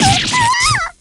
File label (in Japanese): shock, jp; p4u; yukari